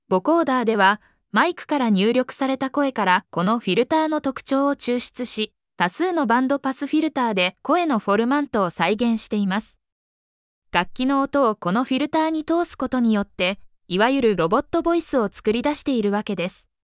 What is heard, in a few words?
voice sample, vocoder